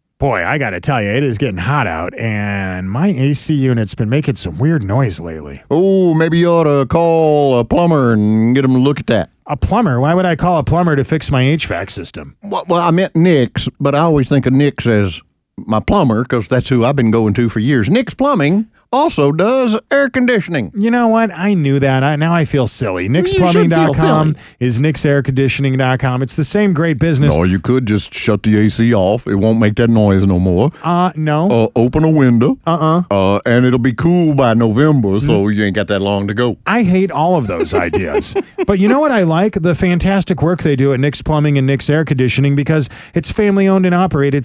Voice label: RADIO AD; nicks plumbing radio ads